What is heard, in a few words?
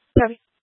Police Department Dispatch, scanner